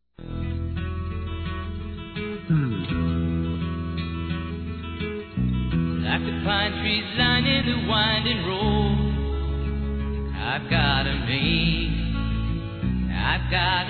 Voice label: ROMANTICA, Telemensagens Românticas fem

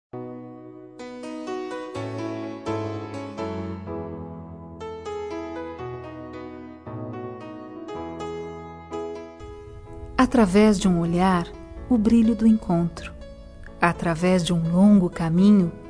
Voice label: Aniversário de casamento (filhos enviam) fem.; Datas importantes

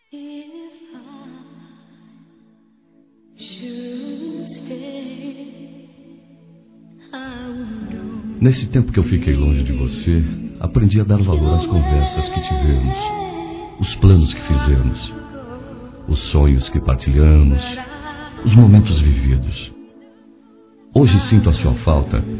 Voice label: Conquista Ex. Esposa ou Namorada Masculino; Telemensagens; Conquistas Cantadas paqueras; Telemensagens 2017

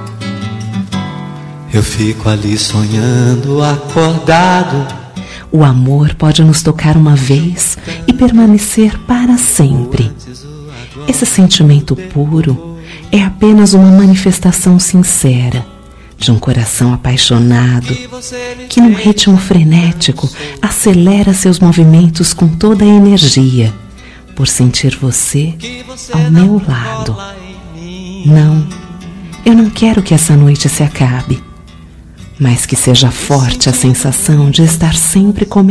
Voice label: ROM AS NOITES EM SUA COMPANHIA FEM

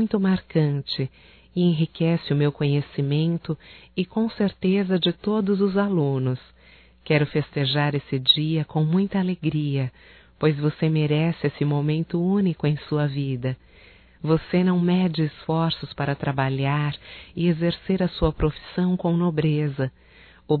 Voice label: Dia Professor Feminino; Datas Mistas; Telemensagens 2017

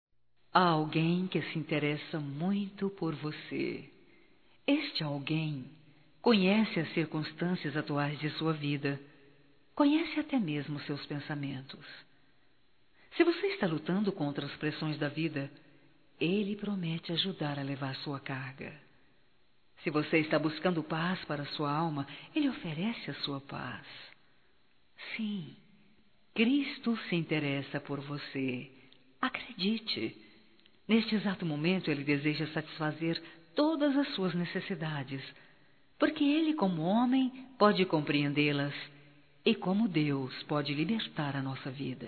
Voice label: OTIMISMO (F); Telemensagens 2017

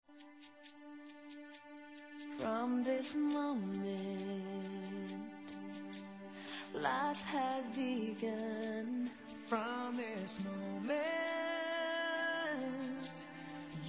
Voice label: ANIV. ROMANTICO M

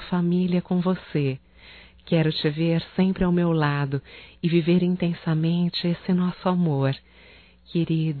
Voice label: DIA DOS NAMORADOS, Só Voz, fem; Telemensagens; HOMENAGENS

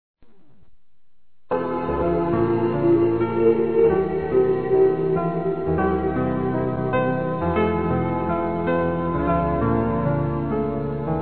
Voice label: SALMOS 90 M, Telemensagens, Gospel, masc

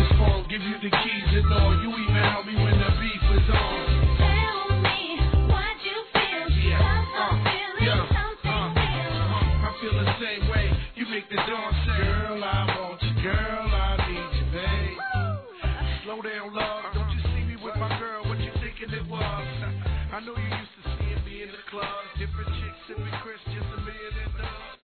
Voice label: NETA F, Telemensagens, Aniversários, Fem; Aniv Familiar

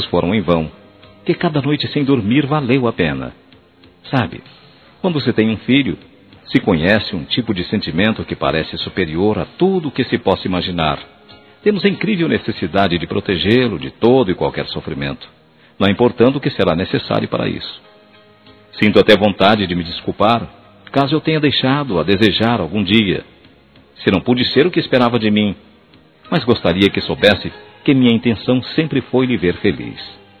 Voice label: Formatura Masculina; Para Filho; Telemensagens 2017; Formaturas

